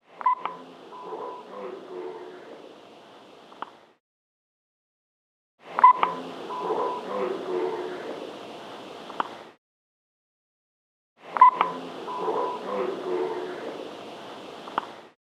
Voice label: Low Voice; unintelligible; EVP